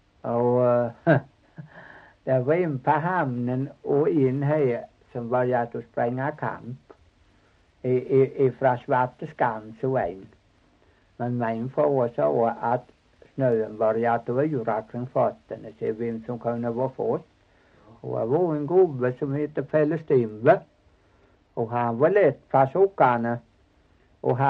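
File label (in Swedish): Torhamn Blekinge, dialekter; Folkminnen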